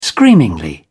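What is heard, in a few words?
"screamingly", British English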